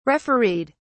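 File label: "refereed", English, uk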